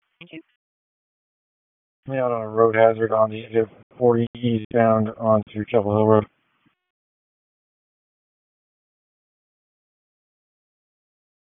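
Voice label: Police Southwest District Dispatch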